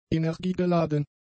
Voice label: "energiegeladen", German; adjectives